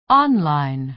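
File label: "online", American English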